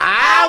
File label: ow male